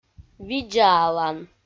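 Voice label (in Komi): "видзаалан", Komi, female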